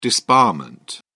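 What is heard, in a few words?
"disbarment", British English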